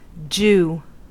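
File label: "jew", American English; pronunciations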